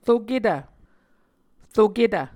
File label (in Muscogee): "roketv", Muscogee